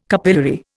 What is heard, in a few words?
"capillary", Indian